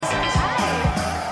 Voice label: Frau; Winning Time

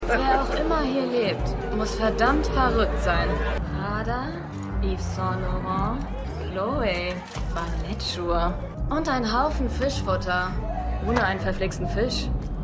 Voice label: Partygaestin, Stimmproben

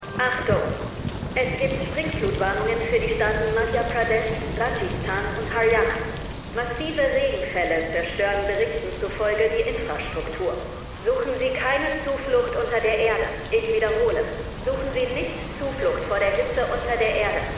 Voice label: Durchsage; Extrapolations

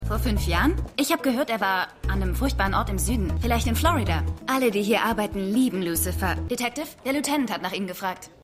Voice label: Polizistin; Lucifer

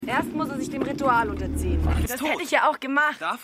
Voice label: Junge; Hercules

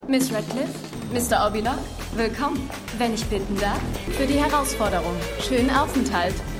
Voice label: Empfangsdame, Stimmproben

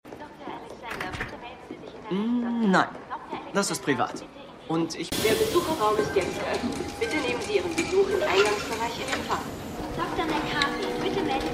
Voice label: Durchsagen; DurchsagenF; New Amsterdam

